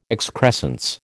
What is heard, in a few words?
"excrescence", American